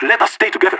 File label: radio bot regroup; player vo leet